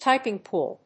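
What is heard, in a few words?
"typing pool", English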